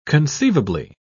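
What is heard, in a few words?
"conceivably", British English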